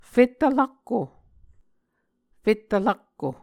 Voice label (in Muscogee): "fettv rakko", Muscogee; Mvskoke Words with Repeated Consonants; A Dictionary of Creek/Muskogee; SIL International